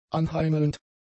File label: "anheimelnd", German; adjectives